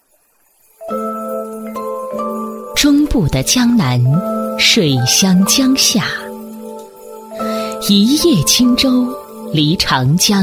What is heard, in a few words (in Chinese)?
女 专题 大气韵味, 国语女声配音; 情满水乡 荷润人心; 优雅配音